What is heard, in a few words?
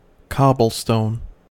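"cobblestone", US English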